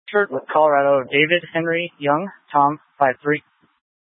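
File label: Incident Tac 3, Scanner audio recording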